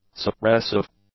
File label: "suppressive", English; adjectives